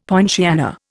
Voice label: "poinciana", Indian; Dictionary